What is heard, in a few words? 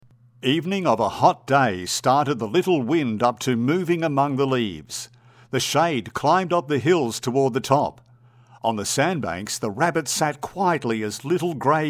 audio book audition